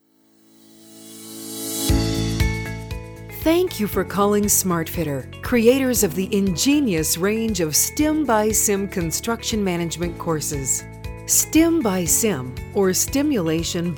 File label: Company Greetings